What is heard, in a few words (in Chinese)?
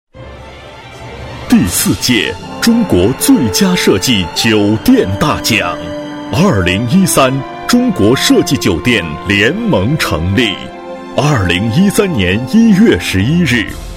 男, 酒店设计颁奖晚会; 中国第四届酒店设计颁奖晚会